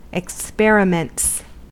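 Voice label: "experiments", US English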